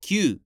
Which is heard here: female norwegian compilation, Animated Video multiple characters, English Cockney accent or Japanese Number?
Japanese Number